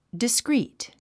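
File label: "discrete", English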